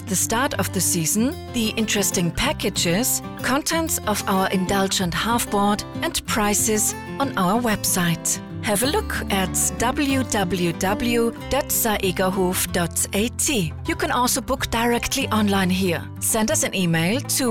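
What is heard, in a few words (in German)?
Hotel Nachtansage Nebensaison, Telefonanlagen; Hotel Saegerhof; telefonansagen hotels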